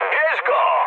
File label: attack position mood high, speech pol